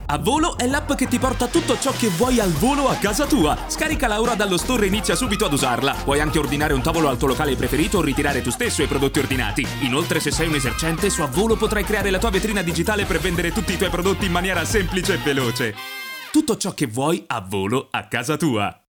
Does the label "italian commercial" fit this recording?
Yes